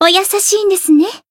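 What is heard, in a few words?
Voice healed, Japanese; rosetta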